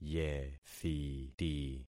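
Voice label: "yethiti", concatenated syllables; Affixing experiment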